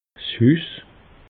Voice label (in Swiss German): "huss", alsace